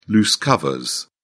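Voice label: "loose covers", British English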